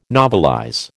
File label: "novelize", American